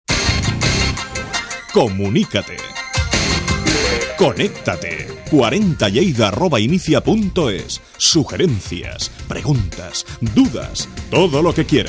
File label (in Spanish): Publicidad Radio voces comerciales musica; Los 40 Lleida